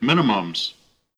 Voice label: "minimums", gpws